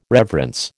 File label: "reverence", American